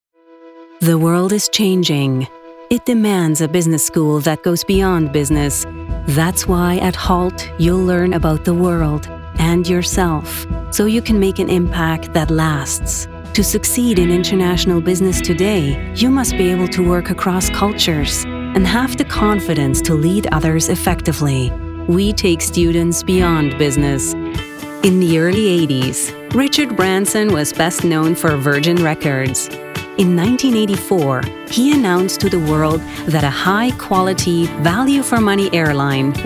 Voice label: CORPORATE

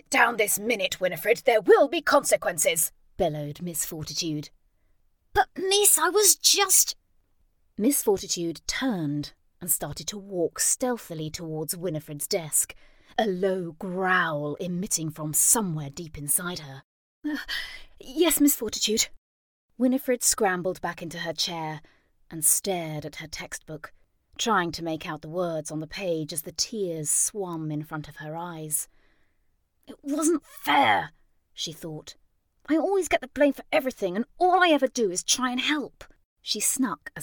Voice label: Audiobook Narration

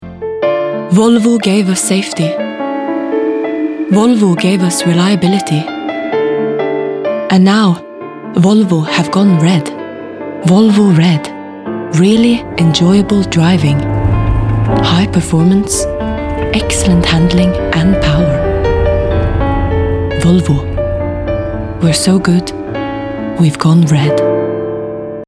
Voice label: commercial Scandinavian accent; Volvo

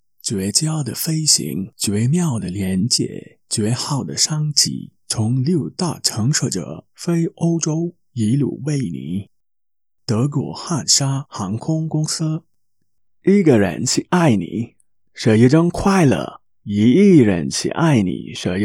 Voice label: Chinese Commercial

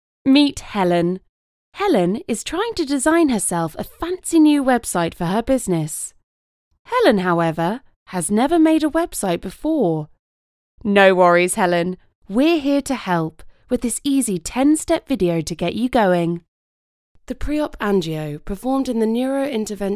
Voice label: explainer corporate reel

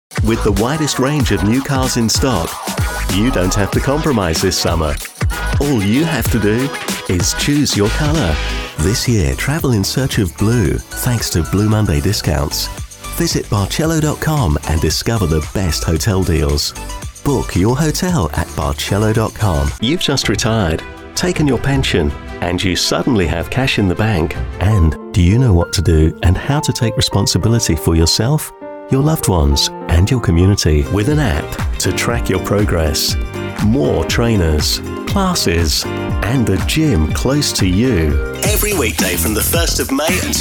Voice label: Commercial Promo

